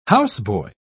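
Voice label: "houseboy", British English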